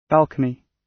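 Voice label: "balcony", British English; Design and innovation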